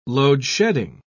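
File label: "load-shedding", British English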